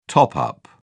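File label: "top-up", British English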